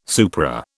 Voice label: "supra", British